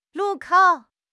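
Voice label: "lu4 kou3", Mandarin Chinese; HSK2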